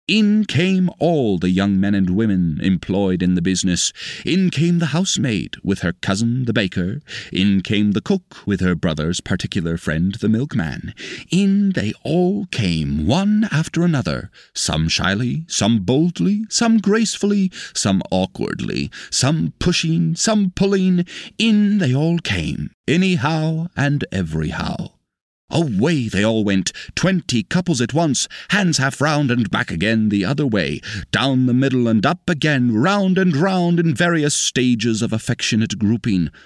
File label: narrator